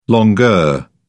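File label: "longueur", British English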